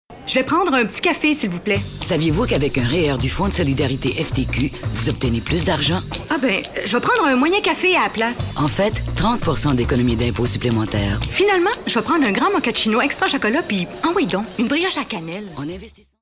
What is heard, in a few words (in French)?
Publicité; FTQ